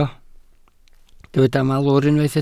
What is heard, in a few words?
"malwr", Welsh